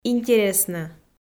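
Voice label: "интереснӧ", Komi-Zyrian, female; audio dict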